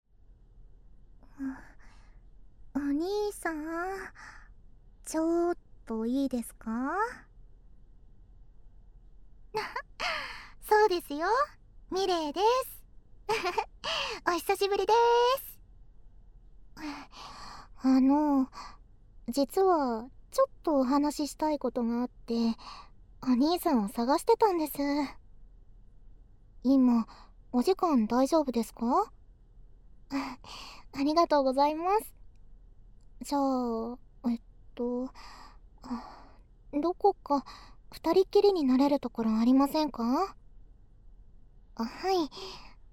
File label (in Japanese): 乳首責めとカウントダウン, ASMR; 負け射精